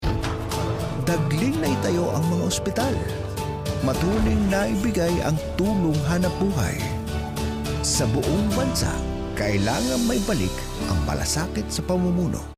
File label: Tagalog, Credible